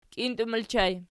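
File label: "kintum" ergative plural, Archi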